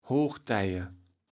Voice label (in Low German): "Hochtije", kaevels platt